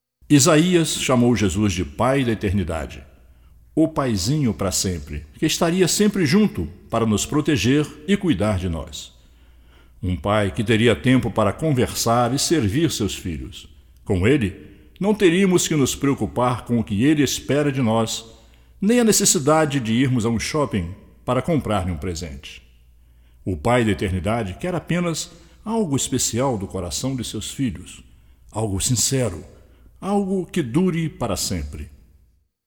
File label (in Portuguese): Narração